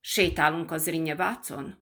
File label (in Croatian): mađarski; POP UP Zagreb